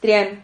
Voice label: "trian", Silabas

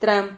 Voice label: "tram", Silabas

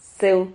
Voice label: "zeu", Silabas

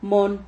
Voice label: "mon", Silabas

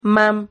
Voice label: "mam", Silabas

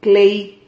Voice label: "clei", Silabas

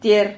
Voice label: "tier", Silabas

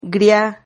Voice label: "gria", Silabas

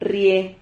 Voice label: "rrie", silabas

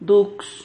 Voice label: "dux", Silabas

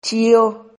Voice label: "chio", Silabas

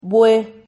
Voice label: "bue", Silabas

